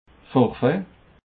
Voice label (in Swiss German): "forfait", Alsace